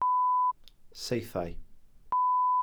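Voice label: "saethau", Welsh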